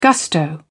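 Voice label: "gusto", British English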